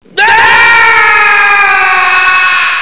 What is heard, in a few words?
cat scream, scientist